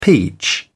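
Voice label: "peach", British English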